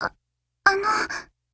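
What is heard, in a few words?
PHRASE, Japanese; TMP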